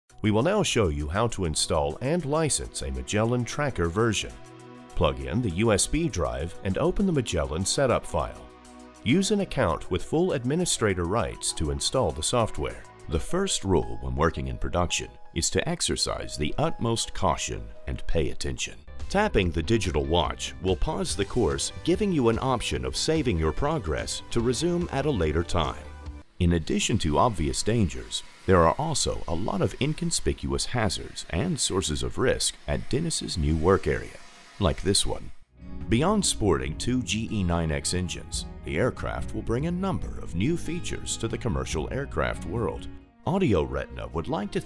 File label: Naration